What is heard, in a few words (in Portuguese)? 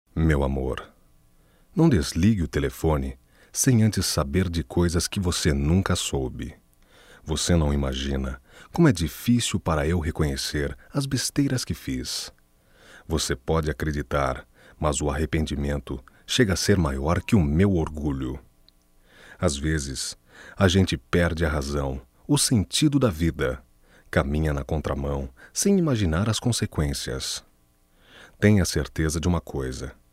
Reconciliação Masculino; Telemensagens 2017; MOMENTOS DE AMOR